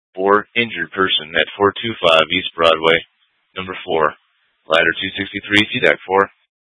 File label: FD Dpts VHF 156.240 Patch